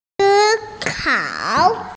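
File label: "think", Mandarin Chinese, female; si1kao3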